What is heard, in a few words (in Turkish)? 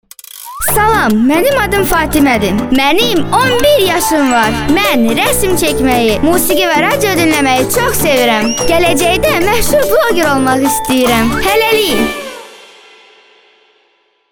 Azerice Kadin Cocuk Kiz, Azerice seslendirme